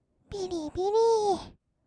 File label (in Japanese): "びりびりー", kawaii voice; ASMR; 色々なワンショット